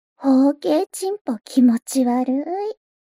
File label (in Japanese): mob 女子 台詞